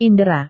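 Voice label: "indera", Indonesian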